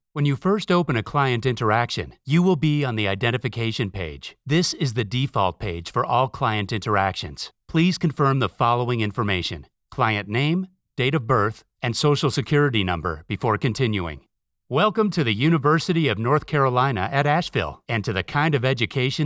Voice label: eLearning